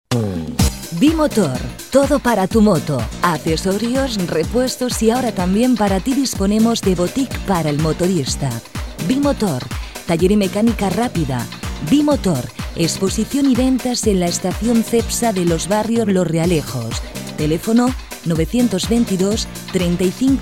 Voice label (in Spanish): castellano